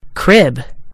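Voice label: "crib", American English; gcompris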